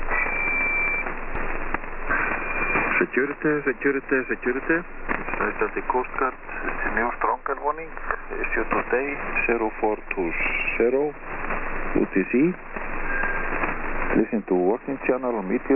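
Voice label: 2182 Icelandic coastguard, 2182kHz, Marine Audio HF; Icelandic Coastguard, Sept 2009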